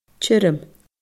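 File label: "tioram", Scottish Gaelic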